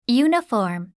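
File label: "uniform", English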